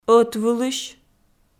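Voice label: "ӧтвылысь", Komi, female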